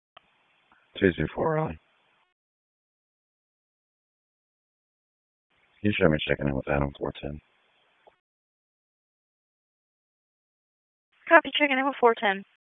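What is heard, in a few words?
Police Northeast District Dispatch, p25; Raleigh; wake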